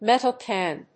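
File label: "metal can", English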